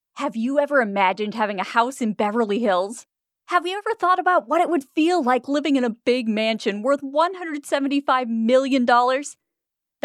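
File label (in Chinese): 外籍女声 美式英语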